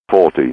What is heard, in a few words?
"40", GPWS; A32X